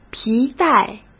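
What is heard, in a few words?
"皮带", Mandarin Chinese; chinese-flashcards; hsk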